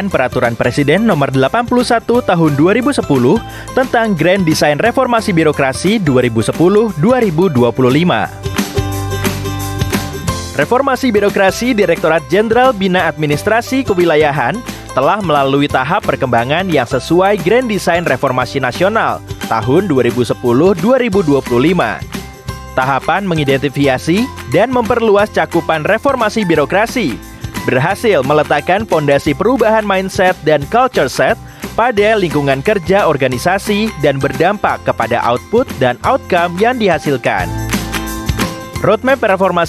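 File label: Bahasa Indonesia Video Explainer; Kemendagri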